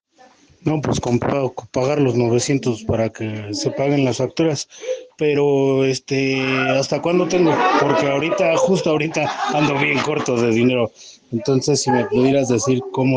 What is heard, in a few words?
whatsapp ptt